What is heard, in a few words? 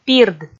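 "пирд", Komi, female; audio dict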